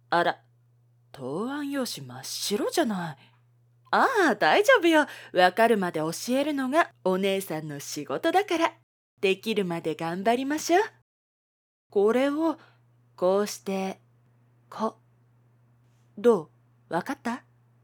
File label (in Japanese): セクシーな家庭教師